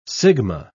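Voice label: "sigma", British English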